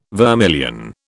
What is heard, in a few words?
"vermilion", British